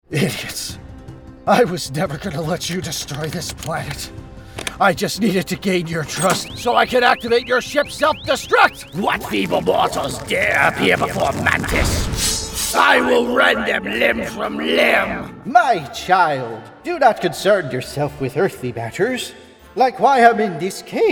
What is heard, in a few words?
Character Demo